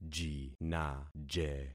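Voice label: "jinaje", concatenated syllables; Affixing experiment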